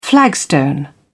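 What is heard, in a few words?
"flagstone", British English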